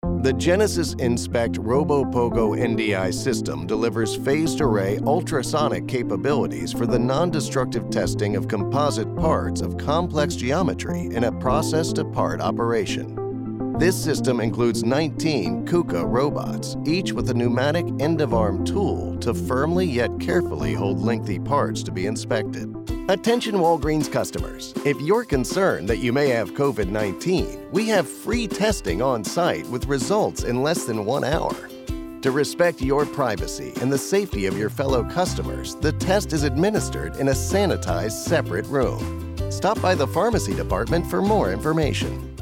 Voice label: Elearning

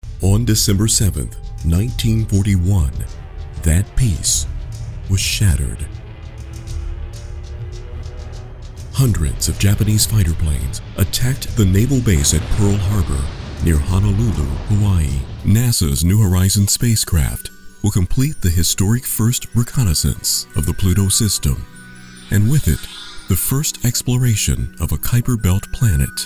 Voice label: Documentary

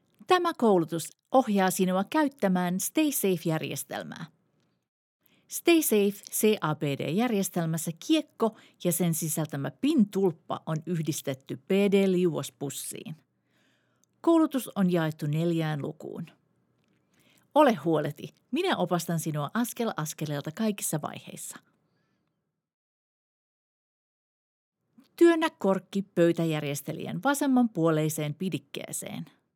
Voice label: Finnish; Stay Safe

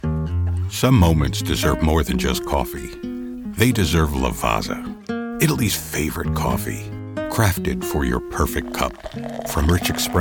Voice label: Commerical

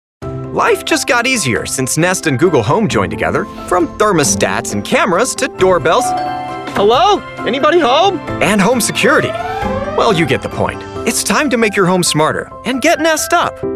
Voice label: commercials

